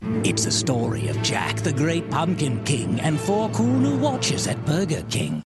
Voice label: British